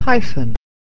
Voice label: "hyphen", English